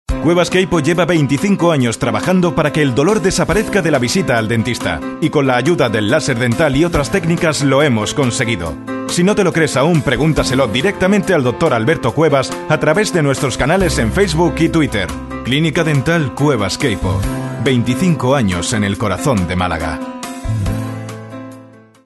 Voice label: young